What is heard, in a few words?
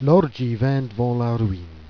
"ordgi", Jèrriais